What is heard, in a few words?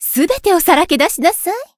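variable chant, Japanese; sherinu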